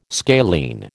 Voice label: "scalene", American